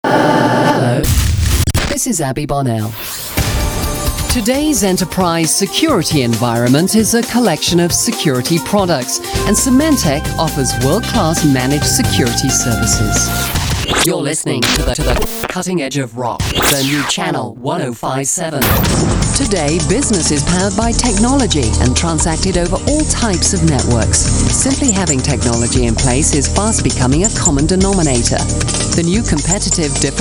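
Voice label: narration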